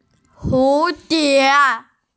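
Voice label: "hu die", Mandarin Chinese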